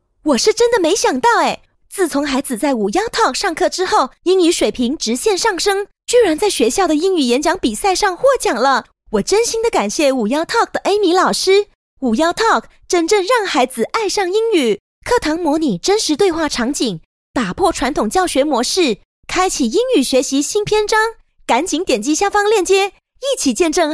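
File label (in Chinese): Chinese, Commercial; GoLocalise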